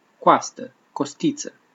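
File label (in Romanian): "coastă", "costiță", Romanian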